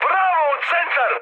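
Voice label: Serbian bomb success mood high, speech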